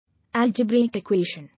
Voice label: "Algebraic equation", English